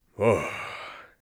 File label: sleepy, Mage Voice; Mage Voice Pack